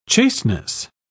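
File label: "chasteness", British English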